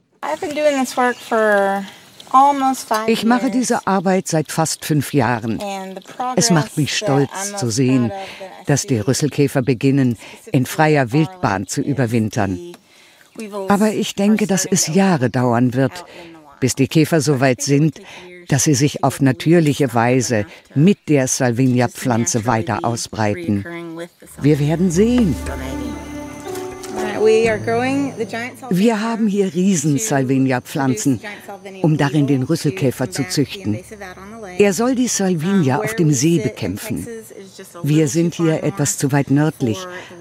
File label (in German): Doku voice over; Arte; Texas Cowboys und Delfine